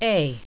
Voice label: "a", English